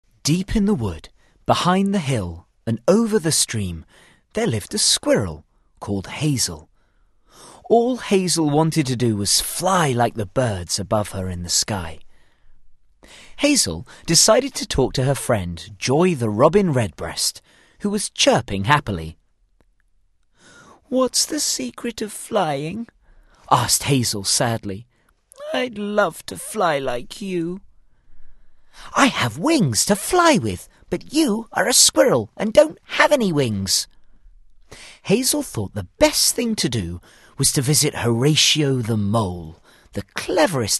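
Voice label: English, narration, childrens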